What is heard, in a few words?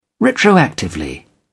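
"retroactively", British English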